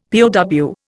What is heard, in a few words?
"POW", Indian; Dictionary